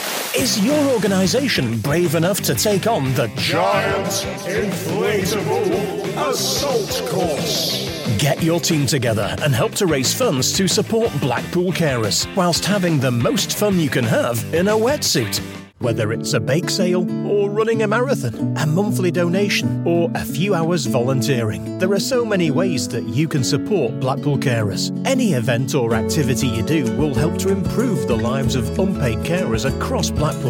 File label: lancashire northern radio ads